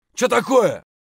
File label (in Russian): "che takoe" scream; tarkov